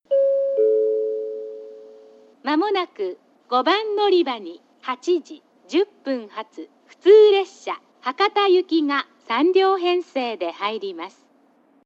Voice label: yoshizuka local hakata; 5番のりば予告放送（普通 博多行き）; 吉塚